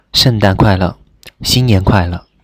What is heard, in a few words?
christmas mandarin